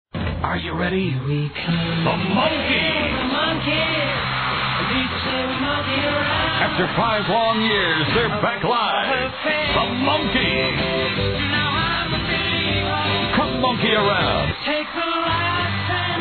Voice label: Tour Commercial